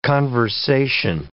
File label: "conversation", English